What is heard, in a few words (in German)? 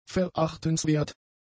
"verachtenswert", German; adjectives